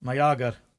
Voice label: "myager" [my-AH-gurr]; Word

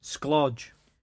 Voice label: "sclodge", Cromarty; [scLADdj]; sneak about; Word

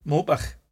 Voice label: "moppach" [MOH-puch]; dirty or drunk; Word

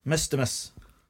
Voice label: "mistimous" [MIS-ti-mus]; before the time; Word

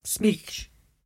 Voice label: "smeech", Cromarty; sound (noise); Word

